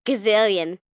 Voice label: Numbers Gzillion, 1 child, girl counting

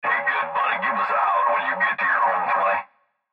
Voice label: Cb Trucker Radio; Radio Voice Sound Effects; Voice Clip Young Male We Got A Smokey Advertisement At Marker 127; Blastwave

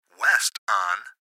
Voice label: Gps Voice, Male; Recorded Voice; Blastwave; Technology

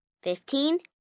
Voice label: Numbers Fifteen, 1 child, girl counting; Talking; Voice Box

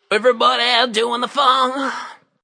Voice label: Vocal, Funk vocal loop sample.; Loop Vocal UryBodyDoinFunk 110bpm

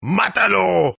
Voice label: Toughguy Kill Spanish, Tough Hispanic Male; Exclamation

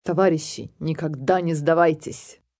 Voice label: Never Give Up, female, Russian; Sayings, female, foreign, Russian; Talking

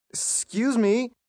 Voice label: Scuse Me M, male; Etiquette

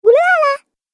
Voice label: Cartoon Game Voice "Oolala"

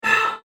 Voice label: Ow! Android Hit, robot; Robot Sound Effects; science fiction sound effect; Andrew Fleming

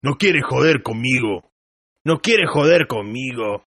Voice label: Tough guy Spanish, Tough Hispanic Male Saying; "You Don'T Wanna F With Me!" In Spanish; Toughguy Fwm Spanish; Attitude Sound Effects

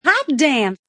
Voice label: Female Says Hot Damn; Talking

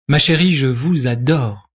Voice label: French Adore You, Male; Talking; Voice Box

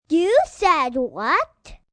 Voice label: You Said What Kid, Questions, female, child; Talking Sound Effects

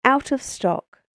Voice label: Out Of Stock British Female Voice; talking; human voice; spoken word

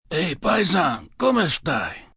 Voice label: Hey Paisan, Mafia, gangster, Italian; Talking; VoiceBox

